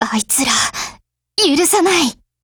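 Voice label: retreat, Japanese; 80type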